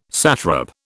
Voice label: "satrap", British

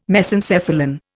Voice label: "Mesencephalon", Indian

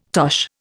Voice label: "tosh", Indian

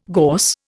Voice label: "gorse", Indian

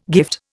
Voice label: "gift", Indian

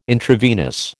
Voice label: "intravenous", American

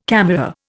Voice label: "camera", Indian

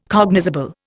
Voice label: "cognizable", Indian

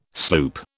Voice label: "sloop", British

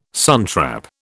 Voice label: "suntrap", British

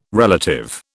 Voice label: "relative", British

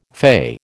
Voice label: "fay", American

